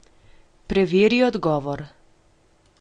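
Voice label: check answer, Chinese; gcompris-data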